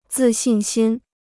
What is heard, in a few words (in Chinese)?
"自信心", Chinese